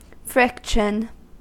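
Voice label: "friction", American English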